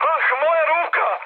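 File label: Serbian damaged pilot mood high, speech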